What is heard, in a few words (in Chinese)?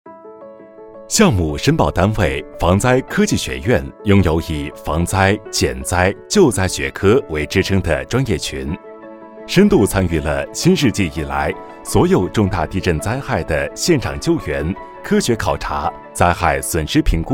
男 项目汇报 讲述 流畅 自然 解说